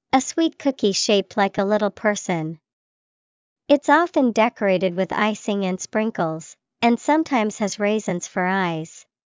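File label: Gingerbread man, English vocabulary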